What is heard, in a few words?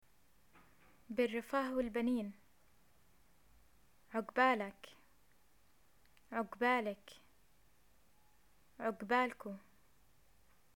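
greet marriage, Jordanian arabic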